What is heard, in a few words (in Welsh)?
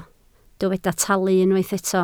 "talu" Welsh